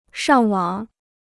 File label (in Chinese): "上网", Chinese